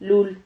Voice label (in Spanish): "lul", Silabas